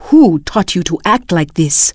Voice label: Draenei Female Military Pissed